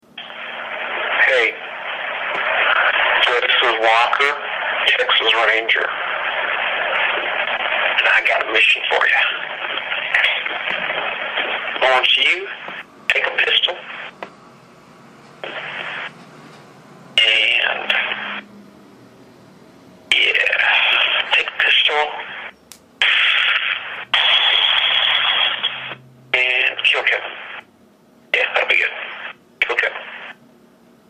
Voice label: voicemail